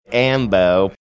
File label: saying ambo australian accent